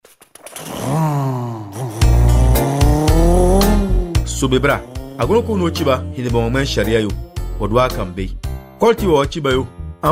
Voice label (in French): CODE DE LA ROUTE DENDI